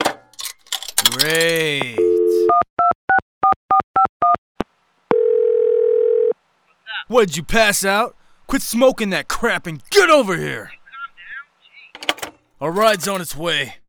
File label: Phone, Human Male; zps; HumanMale-01